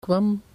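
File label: "kwam", Dutch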